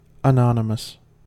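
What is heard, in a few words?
"anonymous", American English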